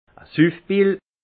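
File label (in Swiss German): "suffbil", alsace Haut-Rhin; vin; poivrot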